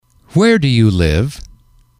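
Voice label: where do you live, English, male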